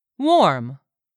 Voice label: "warm", English word; 3000 words